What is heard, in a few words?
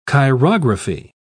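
"chirography", English, British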